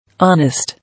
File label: "Honest", English